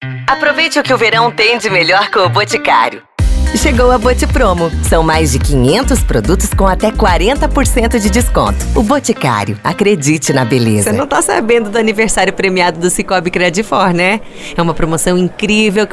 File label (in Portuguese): Feminina